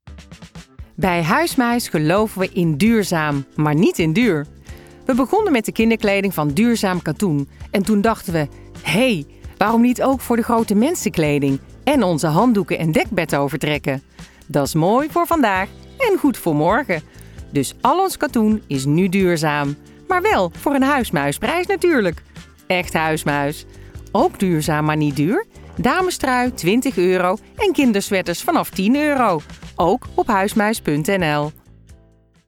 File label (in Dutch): Commercieel; Huismuis